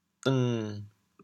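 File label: "deng7", Teochew, chaoyang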